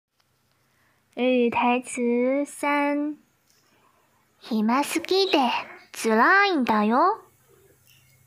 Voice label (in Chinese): 日语 台词